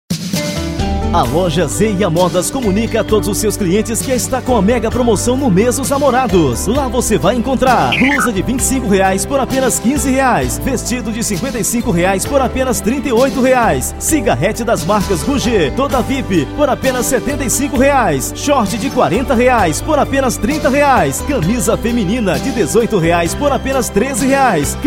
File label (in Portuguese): spot; zea modas